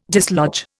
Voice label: "dislodge", Indian